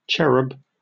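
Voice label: "cherub", British English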